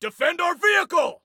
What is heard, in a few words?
Defend Vehicle, Soldier Male